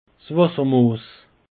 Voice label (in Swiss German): "wassermoos", alsace; peche